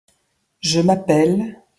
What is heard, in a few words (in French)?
pronunciation fr je m'appelle